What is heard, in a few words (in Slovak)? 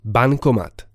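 "bankomat", Slovak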